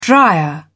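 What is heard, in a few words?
"drier", British English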